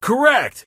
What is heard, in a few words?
"correct", Male; Kenney's Sound Pack; Voiceover Pack